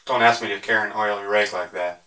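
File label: male reverberant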